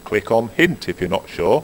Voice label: chat